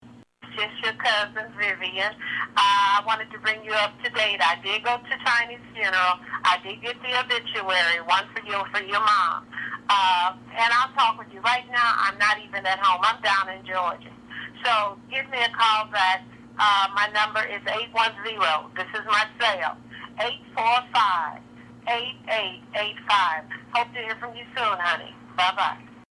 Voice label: voicemail